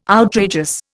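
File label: "outrageous", Indian English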